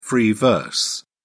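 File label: "free verse", British English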